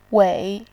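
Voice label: "wei", Mandarin Chinese, tone 3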